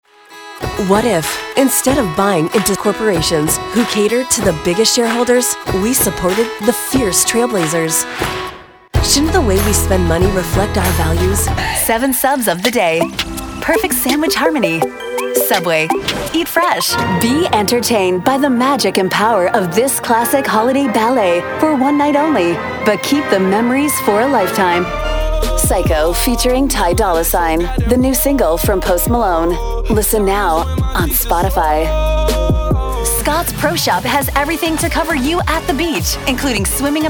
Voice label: commercial women

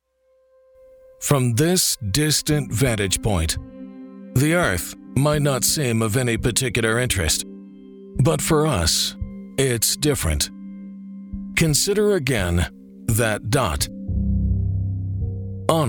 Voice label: narration men corporate

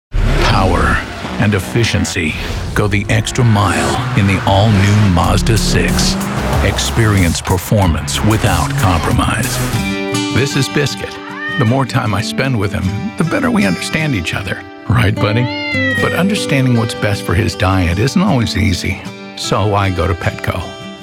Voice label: commercial, commercial men

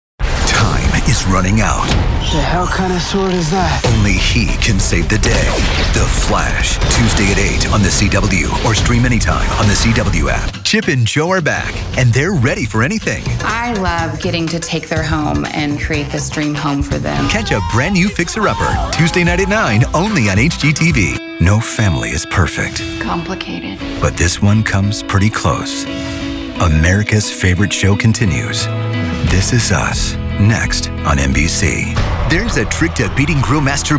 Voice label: promo male

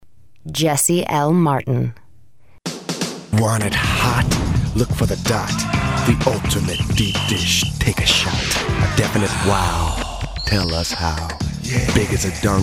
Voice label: commercial, commercial men

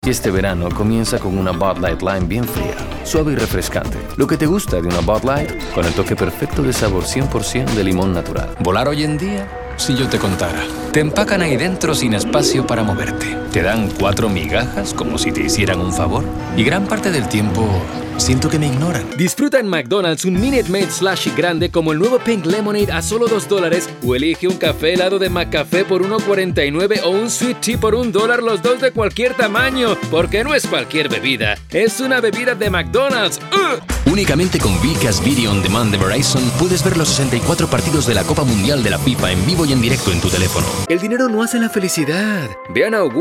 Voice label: languages men commercial

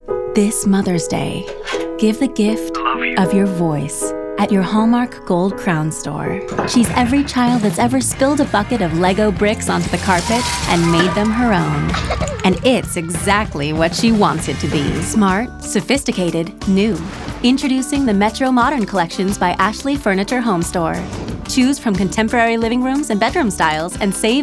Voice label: commercial female; commercial women

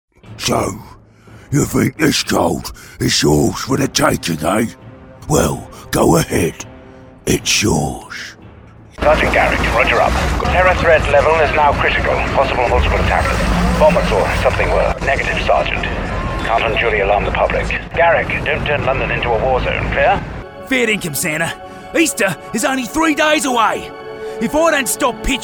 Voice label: animation men interactive

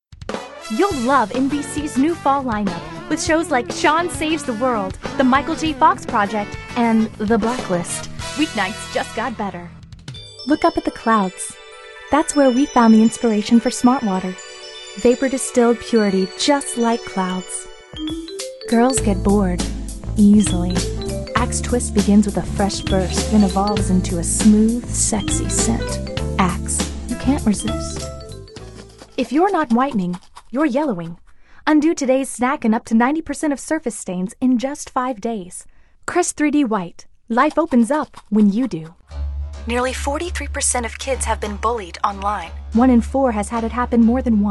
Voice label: commercial female

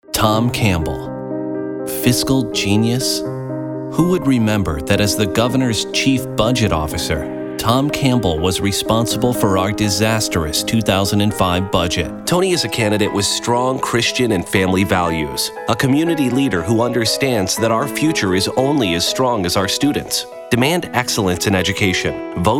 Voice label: political men

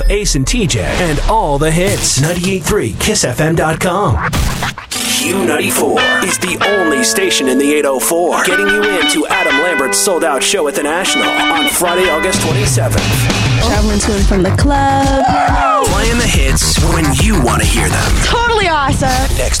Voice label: radio chr, radio imaging

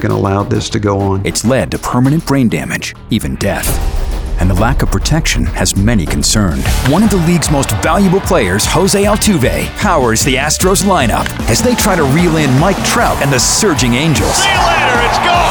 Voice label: promo male sports

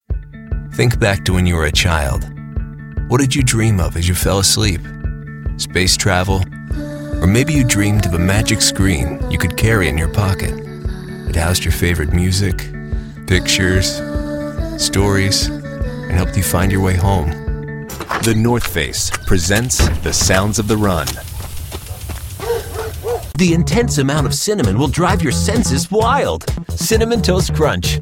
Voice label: commercial, men

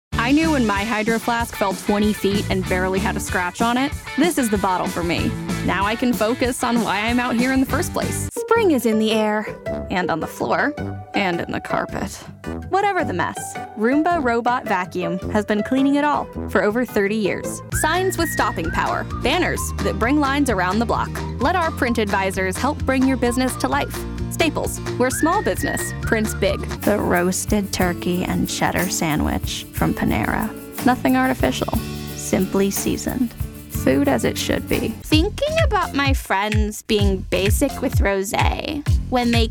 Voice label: commercial, nonbinary

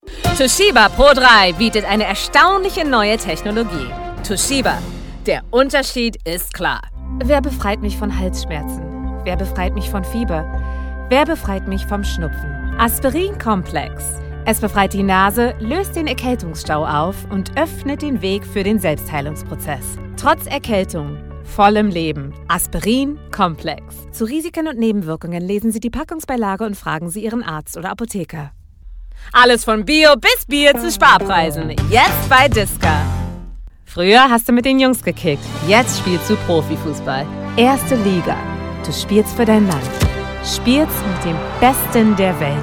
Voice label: languages women german

